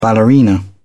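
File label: "ballerina", British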